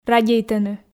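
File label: "радейтӧны" Komi, female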